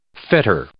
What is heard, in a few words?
"fetter", English; Ingles